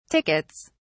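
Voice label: "tickets", British English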